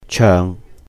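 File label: "cheung", Cantonese; sing; 唱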